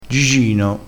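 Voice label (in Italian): "gigino", vocabolario dialettale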